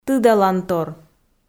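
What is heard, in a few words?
"тыдалантор", Komi, female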